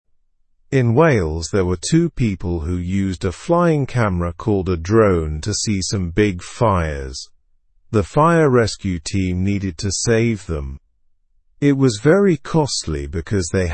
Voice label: english through news beginner slow; stay safe from wales wildfires